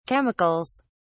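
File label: "chemicals", British English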